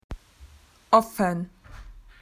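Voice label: "open", Australian English; PictureDictionary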